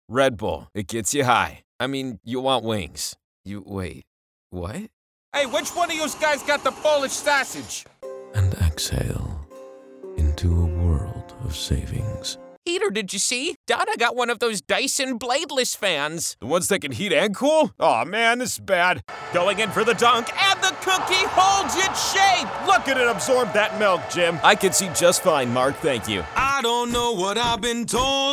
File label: character